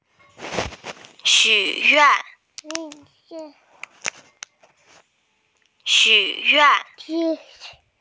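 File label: "wish", Mandarin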